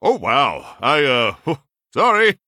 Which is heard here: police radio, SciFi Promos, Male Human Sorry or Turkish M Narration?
Male Human Sorry